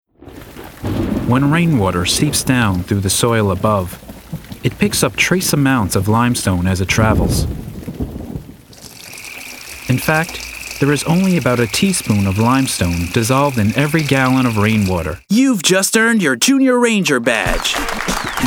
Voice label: Narration